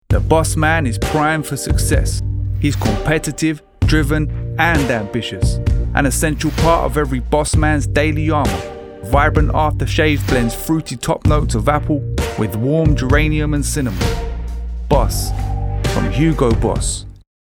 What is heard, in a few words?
Aftershave commercial